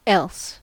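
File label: "else", US English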